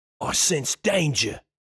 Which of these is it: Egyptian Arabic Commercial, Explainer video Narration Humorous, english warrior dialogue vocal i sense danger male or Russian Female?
english warrior dialogue vocal i sense danger male